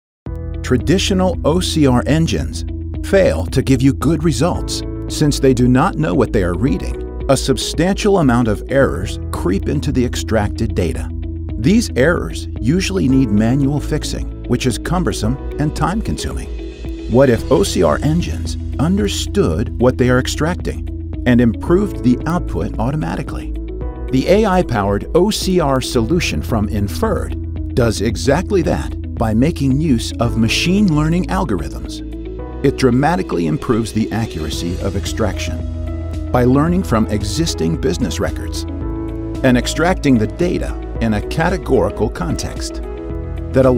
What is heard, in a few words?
Product video s Zakelijk, VOICEOVER